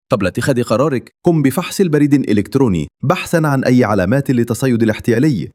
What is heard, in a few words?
Scan Email, Narrations Arabic